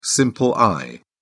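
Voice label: "simple eye", British English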